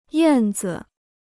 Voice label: "燕子", Chinese